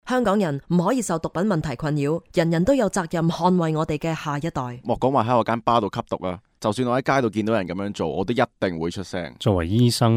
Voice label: community cantonese